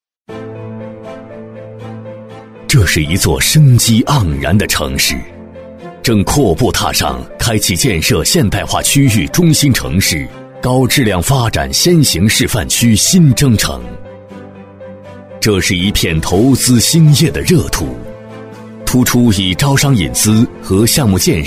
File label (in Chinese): 男 专题片 磁性高端; 高端品质; 大气浑厚